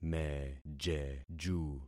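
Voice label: "mejeju", concatenated syllables; Affixing experiment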